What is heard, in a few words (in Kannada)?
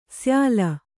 "ಶ್ಯಾಲ", Kannada